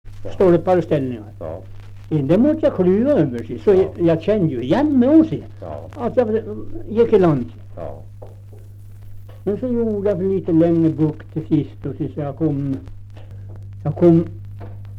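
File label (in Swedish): Österförnebo Gästrikland, dialekter; Folkminnen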